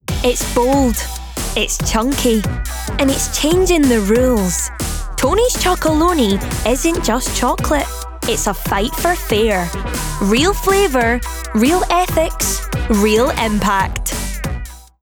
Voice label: Scottish, RP ('Received Pronunciation'), Commercial, Bright, Upbeat, Energetic; Tony's Chocolate